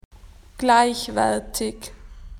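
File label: "equal", Australian English; PictureDictionary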